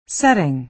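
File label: "setting", American English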